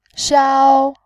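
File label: "xiao", Mandarin Chinese, tone 1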